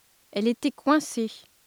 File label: Ditropic French literal